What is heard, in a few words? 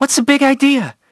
Male; Hit&Run